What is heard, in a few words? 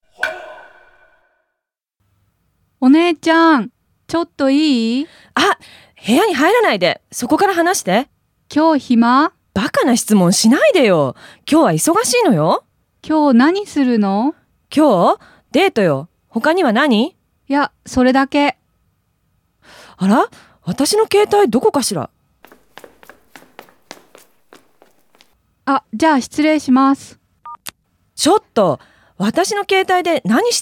dialog; JapanesePod101; Beginner Season 1